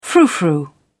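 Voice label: "frou frou", British English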